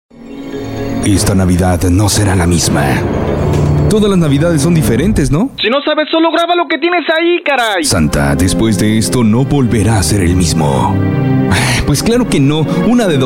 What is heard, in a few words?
spanish voice over male commercial